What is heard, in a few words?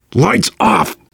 kill that light, voice, biker; Left 4 Dead 2; left4dead2 dlc3; survivor